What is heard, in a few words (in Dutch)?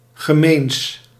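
"gemeens", Dutch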